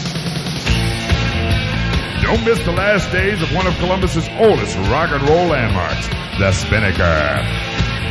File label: Night Club Radio Ad